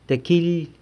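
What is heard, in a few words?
"tequily", tequila, San Lucas Quiaviní Zapotec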